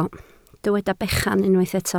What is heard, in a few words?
"bechan", Welsh